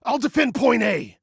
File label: DEFEND, Male